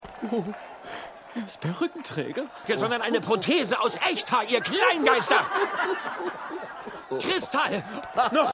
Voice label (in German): Belustigter Pilger; Buen Camino; Stimmproben